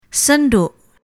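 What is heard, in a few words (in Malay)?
"senduk", Malay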